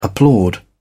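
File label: "applaud", British English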